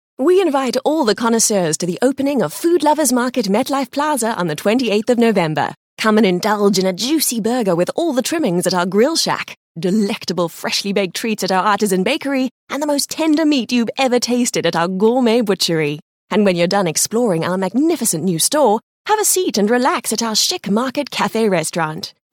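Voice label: F, English South Africa, female, commercial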